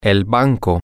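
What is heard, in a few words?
the bank, Spanish, male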